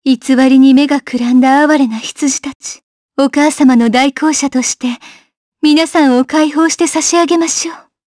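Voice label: voice, Japanese; kingsraid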